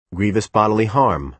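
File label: "grievous bodily harm", American English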